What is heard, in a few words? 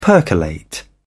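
"percolate", British English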